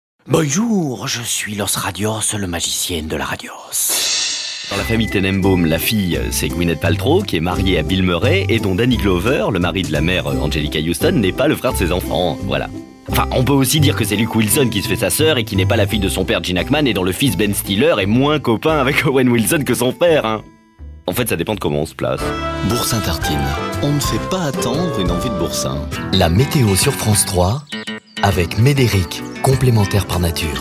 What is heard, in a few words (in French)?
MEDLEY pub